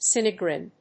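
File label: "Sinigrin", English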